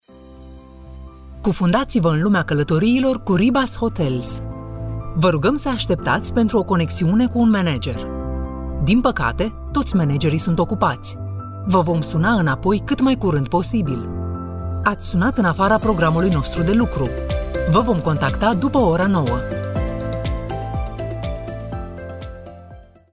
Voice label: ivr